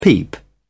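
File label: "peep", English, British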